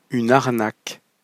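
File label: "une arnaque", French pronunciation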